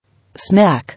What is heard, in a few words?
"Snack", English